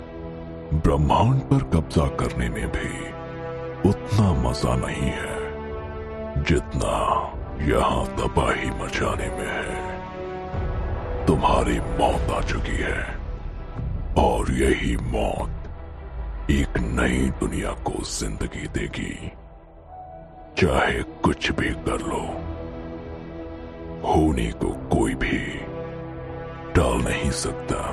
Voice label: Dubbing; Thanos